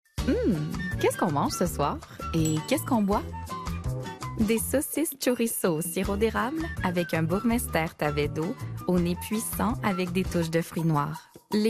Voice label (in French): vins du portugal, fr qc naturel annonceur affirmee douce medium adulte; burmester